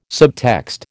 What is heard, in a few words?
"subtext", American